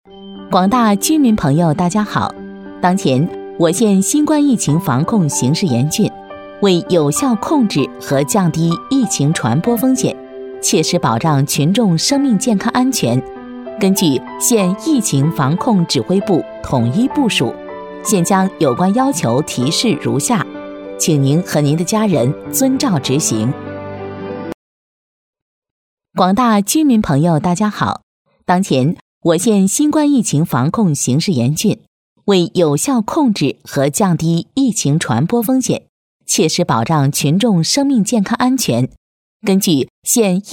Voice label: 女 疫情防控通告

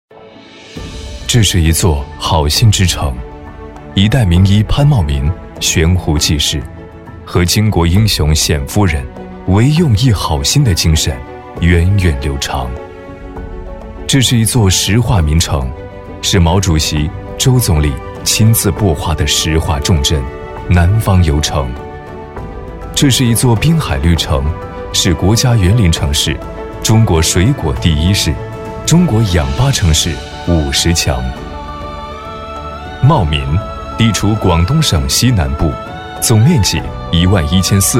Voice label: 男 专题 品质 讲述; 茂名市创建国家卫生城市

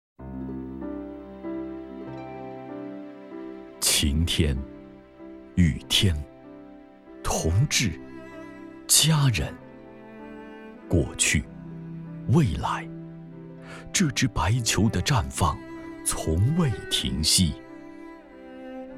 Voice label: 男 独白 深情表述

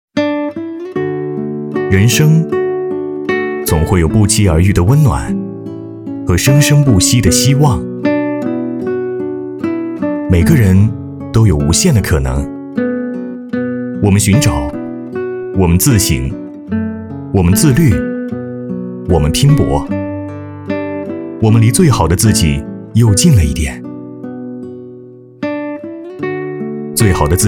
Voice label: 男 走心旁白 温暖讲述

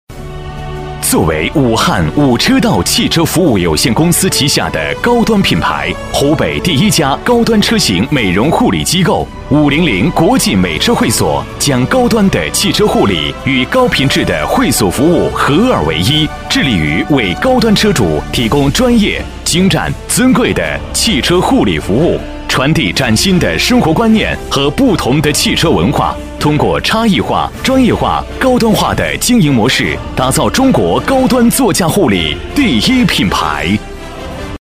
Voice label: 男 企业宣传; 武汉-汽车服务

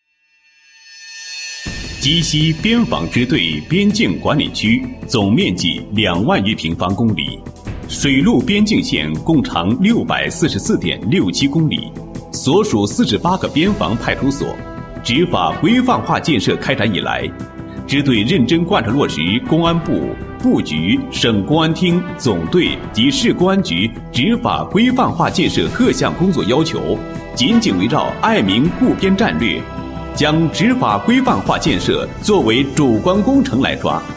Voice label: 政府类, 国语男声配音; 高音经济型; 规范执法

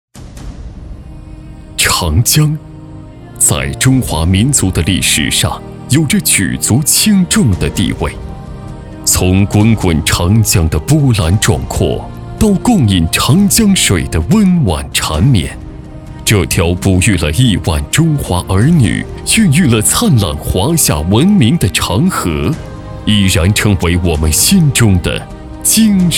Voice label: 男-大气浑厚舒缓; 长江

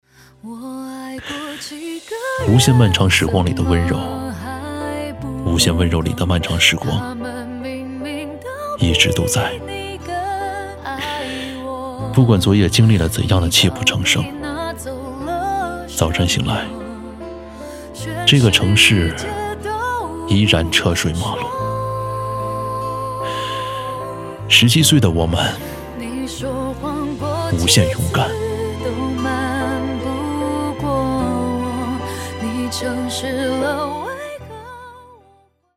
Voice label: 男, 旁白; 17岁的我们

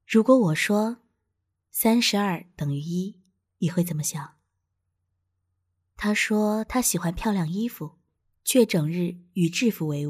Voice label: 女 中文旁白 解说

